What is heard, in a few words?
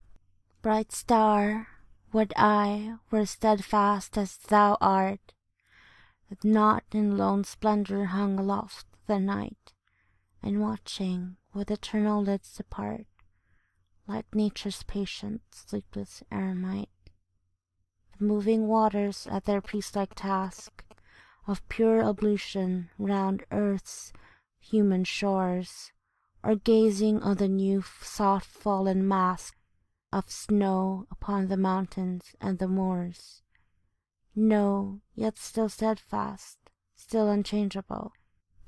F, Romantic, Poetry; Bright Star; Poetry by John Keats; 28 Days of Love Poetry